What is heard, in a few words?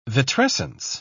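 "vitrescence", English, British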